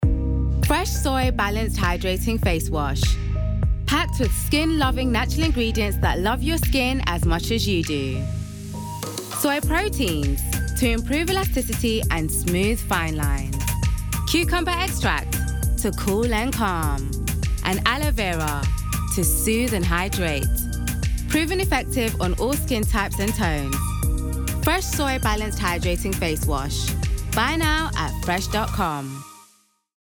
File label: Commercial; FRESH SOY WASH